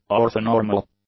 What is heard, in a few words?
"orthonormal", English; adjectives